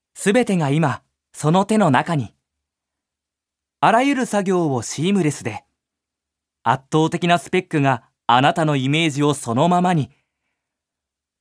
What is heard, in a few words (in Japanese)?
ナレーション; ジュニア：男性